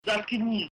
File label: "Заткнись", Russian